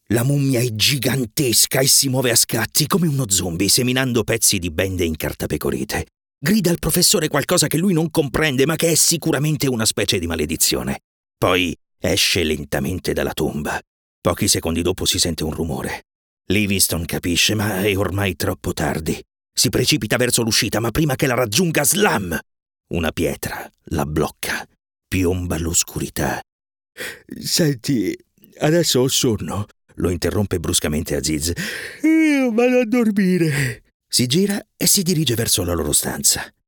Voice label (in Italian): Audiobook Lettura